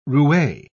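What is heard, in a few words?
"roue", British English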